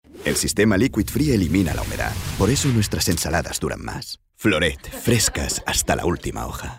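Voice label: male Spanish lettuce commercial; ideasonora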